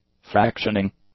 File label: "fractioning", English; adjectives